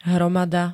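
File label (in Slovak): "hromada", Slovak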